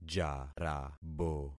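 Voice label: "jarabo", concatenated syllables; Affixing experiment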